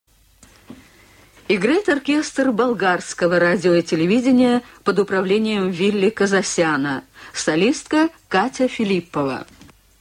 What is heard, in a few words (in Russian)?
golos diktora